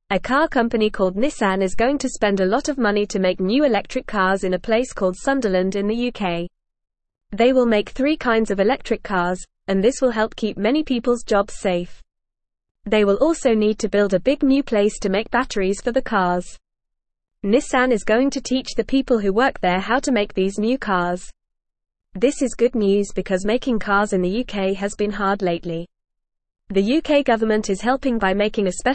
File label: English Beginner FAST Reading; Nissan makes new electric cars in UK; English Newsroom